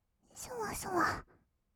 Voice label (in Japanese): "そわそわ", kawaii voice; 1200 kawaii voice!!; 色々なワンショット